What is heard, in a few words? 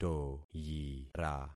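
"toyira", concatenated syllables; Affixing experiment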